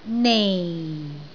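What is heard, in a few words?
"nei4", Cantonese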